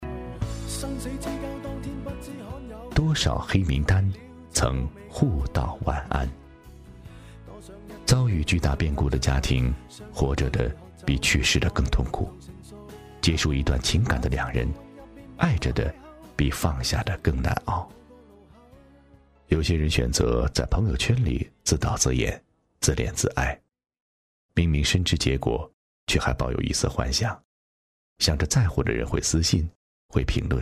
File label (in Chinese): 电台有声故事, 夜读; 多少黑名单; 曾互道晚安